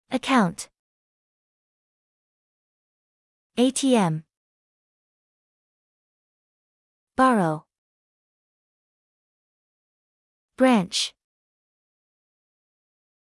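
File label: American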